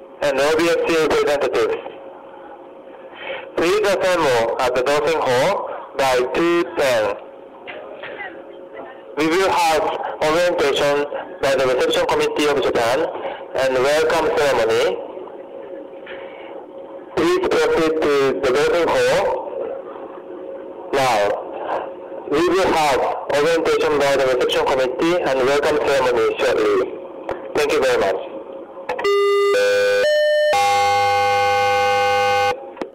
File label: announcement